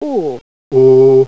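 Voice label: semi back semi close rounded lax; london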